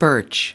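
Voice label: "birch", US English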